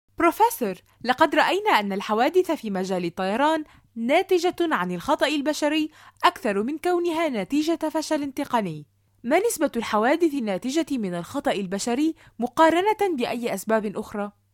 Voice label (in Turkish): Arapca Kadin Suudi Arapcasi, seslendirme; kadin sesler